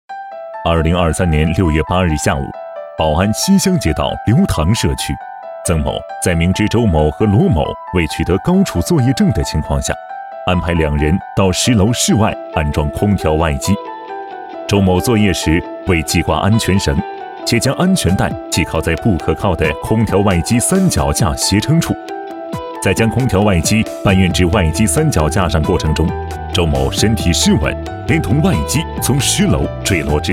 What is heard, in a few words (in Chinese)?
男 专题 警示 案件讲述; 反腐